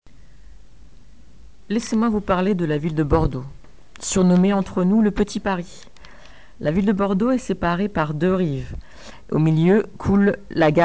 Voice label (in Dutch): luisteroefening; audio-lingua